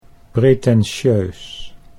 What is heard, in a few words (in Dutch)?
"pretentieus", Dutch